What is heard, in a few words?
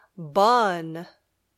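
"bun", English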